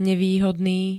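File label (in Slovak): "nevýhodný", Slovak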